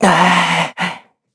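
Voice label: Vox Deny, Korean; heroes; Evan; kingsraid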